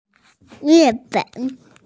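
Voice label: "mian4 fen3", Mandarin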